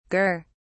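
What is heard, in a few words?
"gur", British English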